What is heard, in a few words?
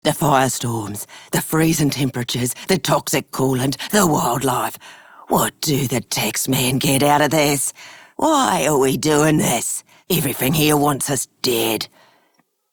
Female Worker; Warframe; Solaris Venus